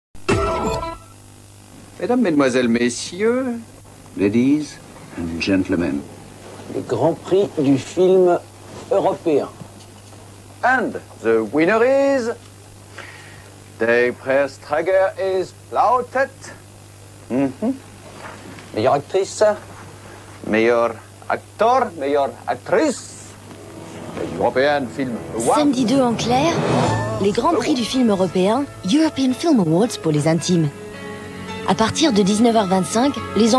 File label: promo; cplus; gp film europeen